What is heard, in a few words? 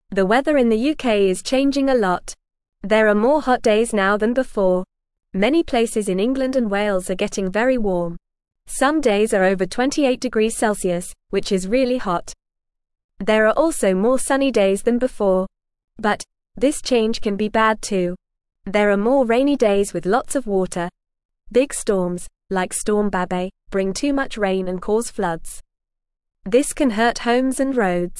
English Beginner FAST Reading; UK Weather is Getting Hotter and Stormier Now